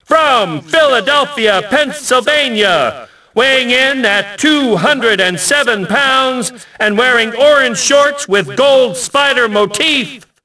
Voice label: announce, ENGLISH; Rocky; XBOX